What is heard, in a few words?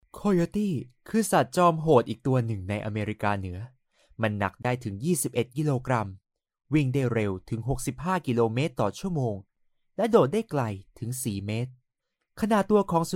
Thai male